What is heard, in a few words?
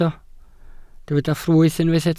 "ffrwyth", Welsh